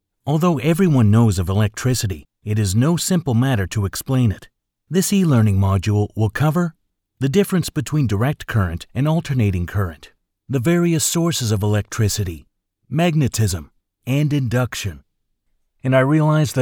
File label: VO eLearning